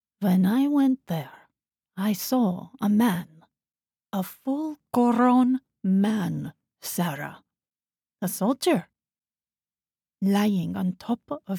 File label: Emotional Memoir Eastern Europe VO